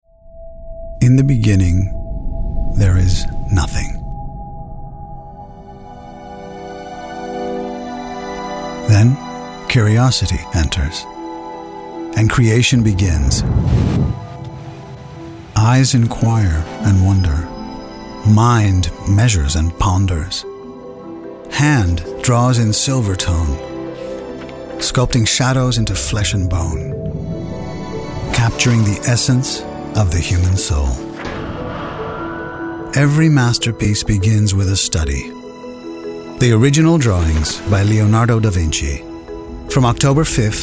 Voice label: eloquent thoughtful; Teylers